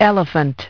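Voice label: "elephant", English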